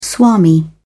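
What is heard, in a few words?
"swami", British English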